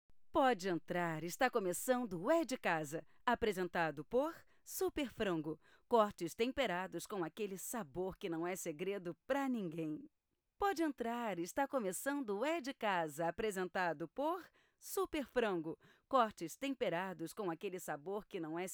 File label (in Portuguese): VOZ JOVEM FEMININA NAO INFANTIL; GLOBO